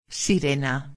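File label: "sirena", Spanish; palavra do dia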